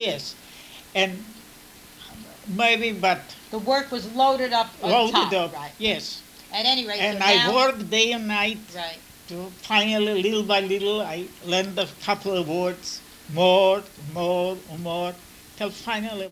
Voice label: Oral history with 92 year old white male Concord Massachusetts, american english dialect recordings